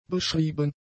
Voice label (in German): "beschrieben", German; adjectives